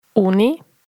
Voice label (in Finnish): "uni", Frasboken